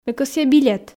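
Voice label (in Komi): ме кӧсъя билет, Komi, female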